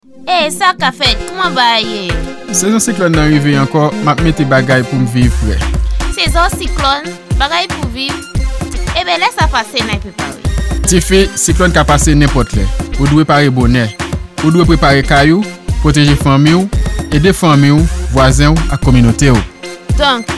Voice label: HURRICANE RADIO CREOLE